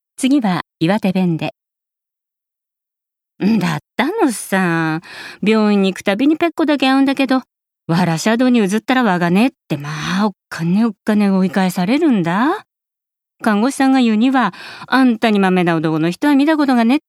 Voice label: 方言

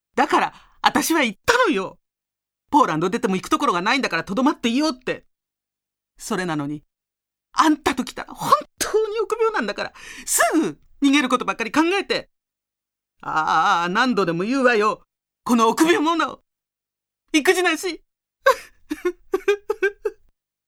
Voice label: 呆れ、怒り、悲しみ

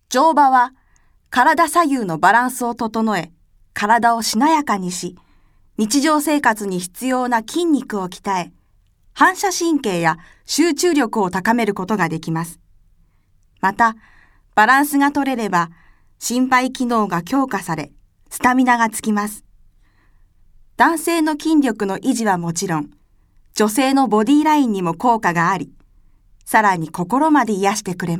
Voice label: 解説ナレ

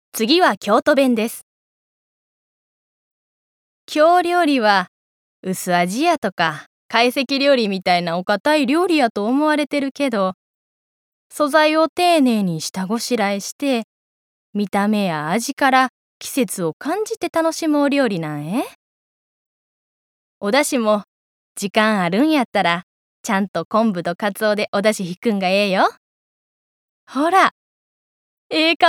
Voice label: 方言

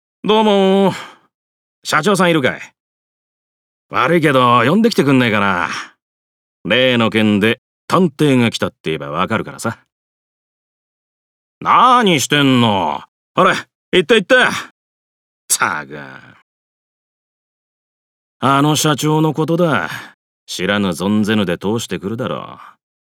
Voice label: ワイルド探偵40代

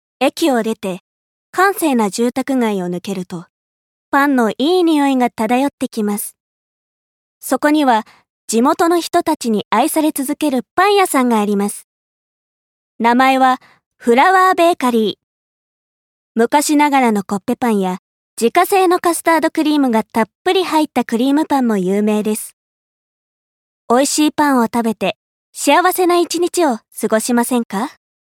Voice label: 街歩きナレ

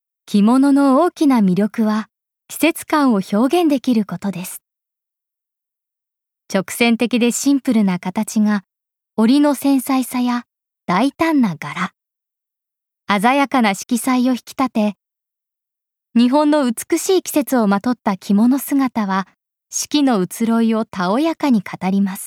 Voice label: しっとり系CM

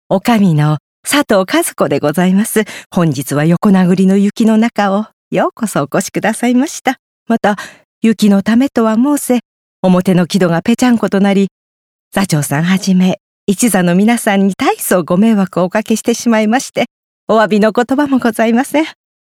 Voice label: 旅館女将

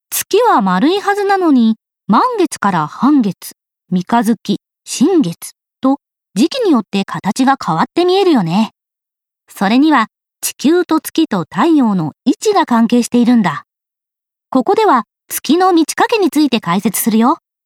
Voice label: 学習番組MC

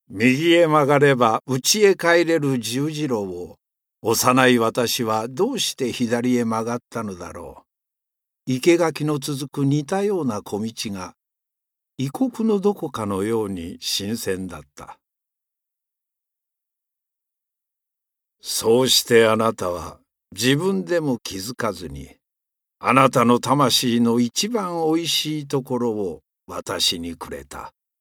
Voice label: 朗読

